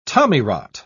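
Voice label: "tommyrot", British English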